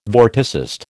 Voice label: "vorticist", American